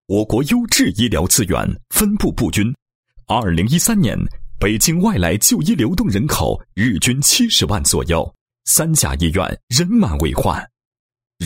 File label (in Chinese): 男 医疗; 第一会诊医疗平台